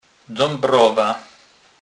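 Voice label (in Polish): "dabrowa", Kurpie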